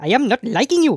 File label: "you'll regret that", Speech; Worms Armageddon; The Raj